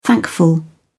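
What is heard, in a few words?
"thankful", English, British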